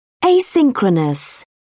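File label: "asynchronous", British English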